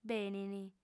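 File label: "benint", female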